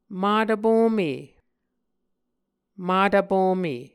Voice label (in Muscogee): "matvpome", Muscogee